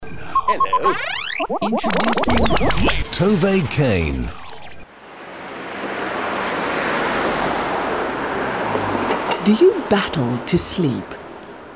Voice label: medium to soft sell voice over